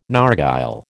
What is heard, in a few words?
"narghile", American